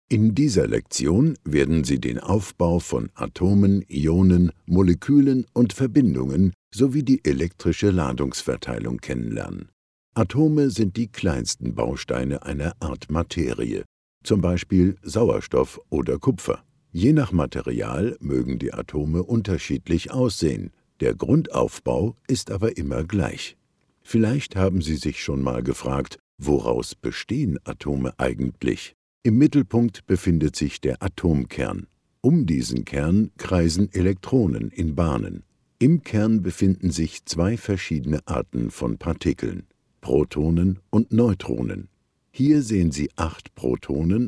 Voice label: elearning; LOFT VOICES